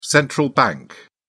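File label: "central bank", British English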